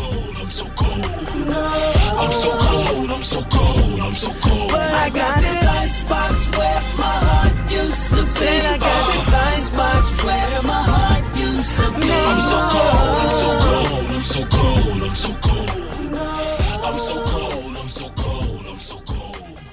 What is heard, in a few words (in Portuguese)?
VOCÊ É DEMAIS F; Dia Dos namorados; fem; Telemensagens 2017